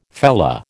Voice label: "fellah", American